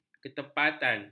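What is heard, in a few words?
"ketepatan", Malay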